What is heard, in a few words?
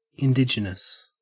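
"indigenous", Australian English; pronunciations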